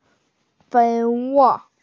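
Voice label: "fei wo", Mandarin Chinese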